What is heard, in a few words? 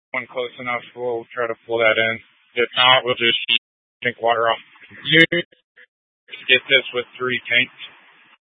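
Incident Tac 1, Scanner audio recording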